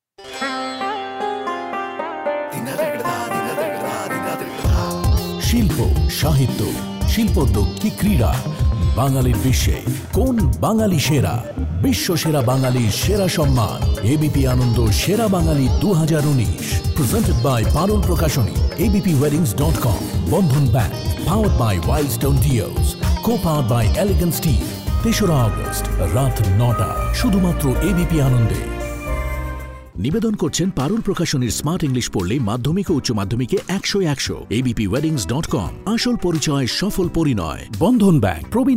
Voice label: Bangla VO Sample